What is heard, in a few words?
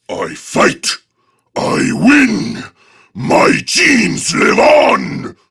Patrol Prime Grineer Male; Tau; Battle Barks